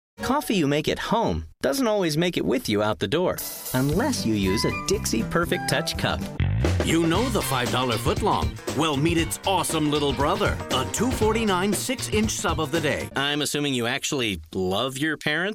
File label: Commercial